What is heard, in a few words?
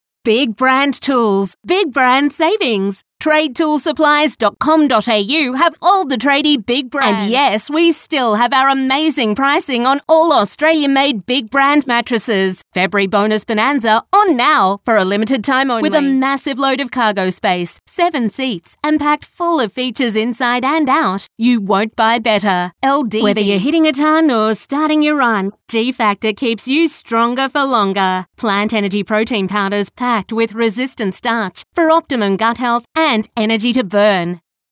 Hard Sell